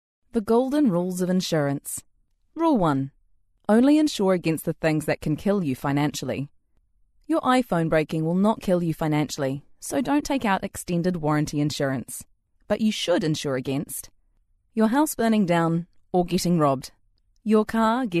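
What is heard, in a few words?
English New Zealand, female, voice over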